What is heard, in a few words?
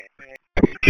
Police Sheriff Dispatch